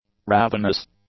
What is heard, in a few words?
"ravenous", English; adjectives